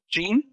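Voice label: VoiceActing es, Male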